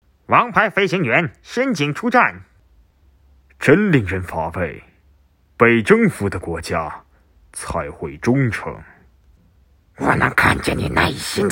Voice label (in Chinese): 游戏配音